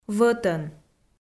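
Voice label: "вӧтӧн", Komi, female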